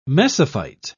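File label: "mesophyte", British English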